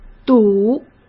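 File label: "堵", Mandarin Chinese; chinese flashcards; hsk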